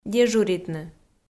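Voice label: "дежуритны", Komi, female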